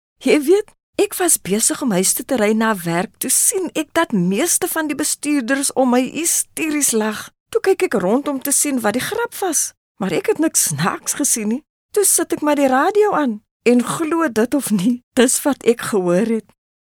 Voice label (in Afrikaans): Afrikaans Chatty Character